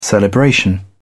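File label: "celebration", British English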